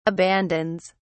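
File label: "abandons", British English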